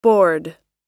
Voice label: "bored", pronunciation

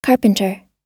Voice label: "carpenter", us

